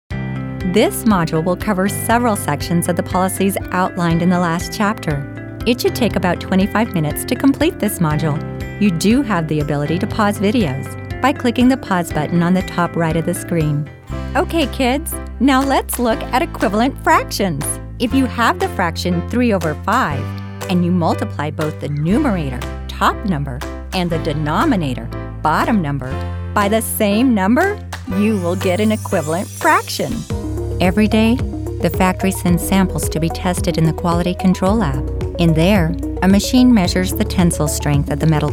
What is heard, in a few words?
eLearning